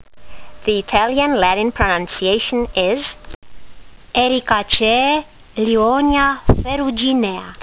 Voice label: latin; Lyonia ferruginea